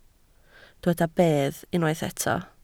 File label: "bedd", Welsh